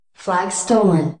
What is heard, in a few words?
Narrator Voice flag Stolen, Female AI; Narrator Voice Pack 1